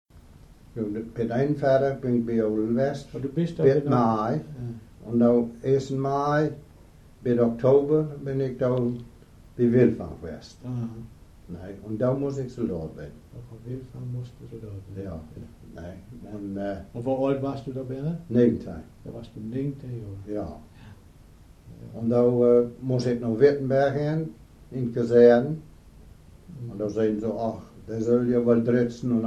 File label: Low Germ; languages